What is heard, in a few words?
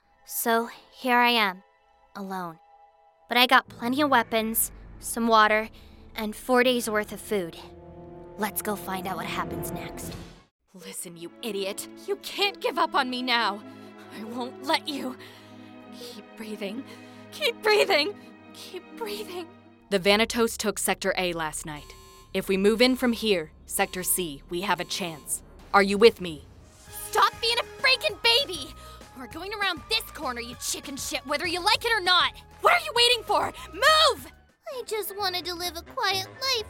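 American English, Video Game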